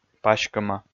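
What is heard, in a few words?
"паськӧма", Komi, male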